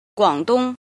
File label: "jin", Chinese